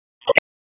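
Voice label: Police Northwest District Tac, radio; Wake; p25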